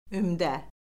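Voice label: "ümdə", Azerbaijani; Orfoqrafiya Lüğəti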